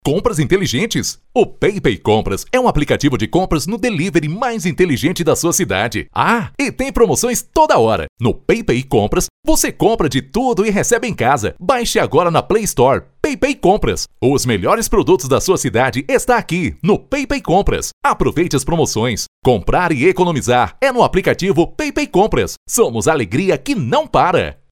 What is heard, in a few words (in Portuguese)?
Locucao em Off